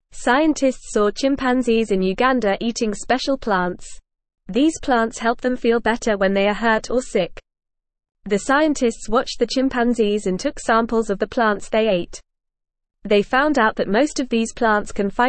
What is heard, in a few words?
English Beginner FAST Reading; Chimpanzees in Uganda eat special plants for medicine